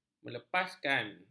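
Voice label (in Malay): "melepaskan", Malay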